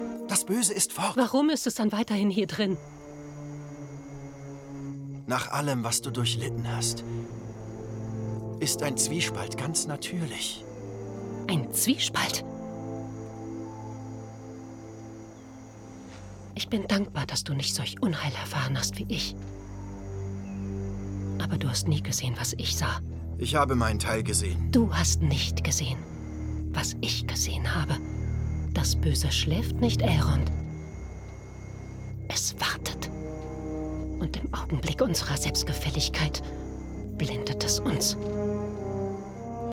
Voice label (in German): Synchron Fantasyszene dramatisch